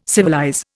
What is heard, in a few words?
"civilize", Indian